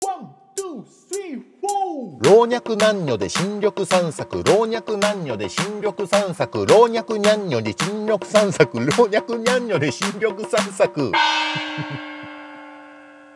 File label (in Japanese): 早口言葉リズム（中級編）